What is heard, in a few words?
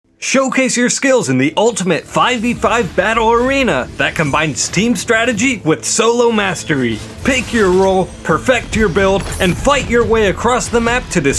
Promo